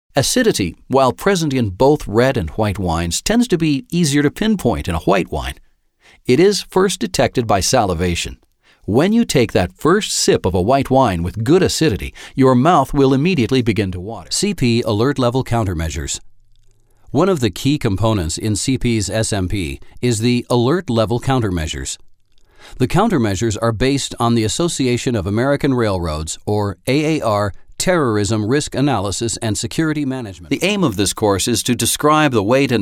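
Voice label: eLearning Conversational Natural; Conversatioal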